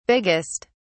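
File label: "biggest", British English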